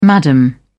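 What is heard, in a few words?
"madam", British English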